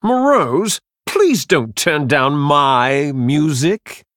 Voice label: Male Human Idle